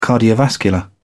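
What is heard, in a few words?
"cardiovascular", British English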